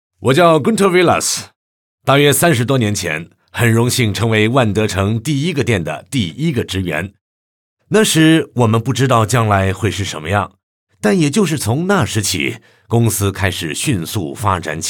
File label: VOICES ENGLISH Male Adult; KERSOUND